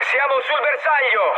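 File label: Italian near waypoint mood med, speech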